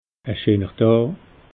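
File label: "scheener daa", Alsatian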